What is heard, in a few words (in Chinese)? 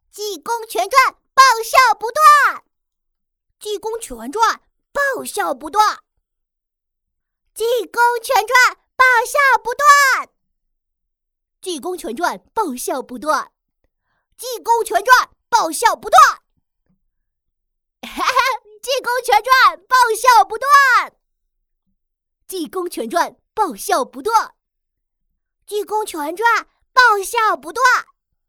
男童试音